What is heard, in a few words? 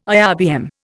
"IRBM", Indian